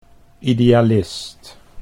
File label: "idealist", Dutch